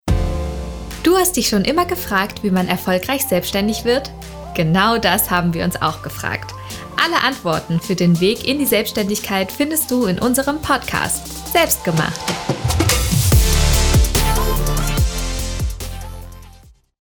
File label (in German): Podcast Intro